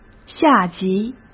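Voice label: "下级", Mandarin Chinese; hsk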